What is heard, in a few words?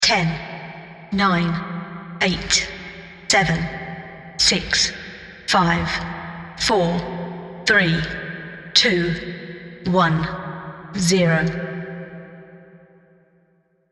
Starfleet Countdown British Female Voice